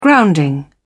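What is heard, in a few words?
"grounding", British English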